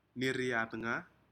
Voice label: "niri atga", Aneityum; shellfish